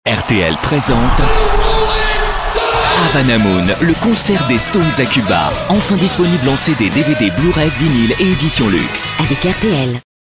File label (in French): promo, voix off disque, homme